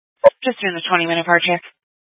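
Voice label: Incident Tac 1, Scanner audio recording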